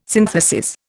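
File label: "synthesis", Indian English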